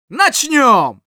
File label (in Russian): battle cry; Scout